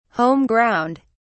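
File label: "home ground", British English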